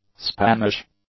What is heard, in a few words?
"Spanish", english; adjectives